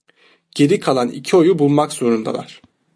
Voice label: "oyu", Turkish; mozilla voice